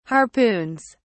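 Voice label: "harpoons", British English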